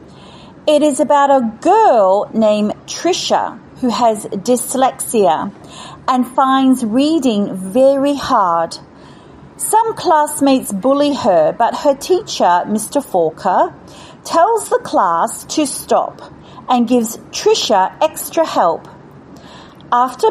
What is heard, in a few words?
English Ambassador Script